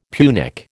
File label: "Punic", American